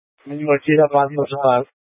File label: Light Rail Emergency, scanner